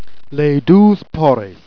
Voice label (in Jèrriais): "12 paraisses", Jèrriais